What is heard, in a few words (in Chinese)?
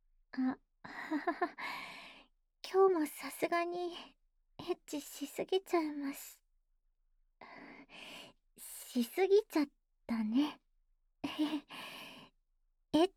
抱着当抱枕睡觉, ASMR